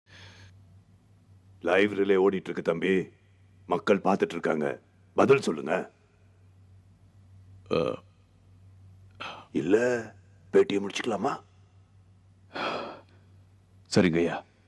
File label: tamil scene; muthalvan